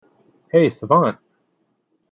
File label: "hey savant", en; wake-word